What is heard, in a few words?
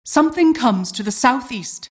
announcer generic alert